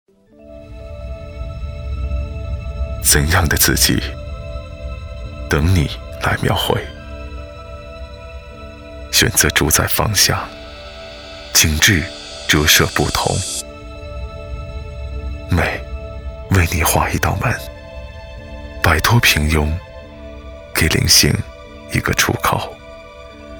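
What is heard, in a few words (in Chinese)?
国语 男声 广告 大气浑厚 大气浑厚磁性 沉稳 科技感 积极向上 时尚活力; 优众网-产品广告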